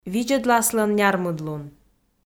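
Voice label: "видзӧдласлӧн нярмыдлун", Komi, female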